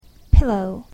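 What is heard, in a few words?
"pillow", English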